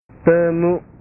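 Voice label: "temu", Indonesian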